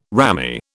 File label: "rammy", British